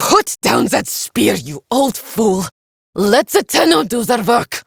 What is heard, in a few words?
Villagers Fem en